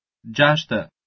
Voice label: "jashte" Albanian, 6